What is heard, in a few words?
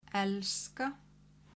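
"elska", Icelandic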